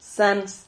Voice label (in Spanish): "sans", Silabas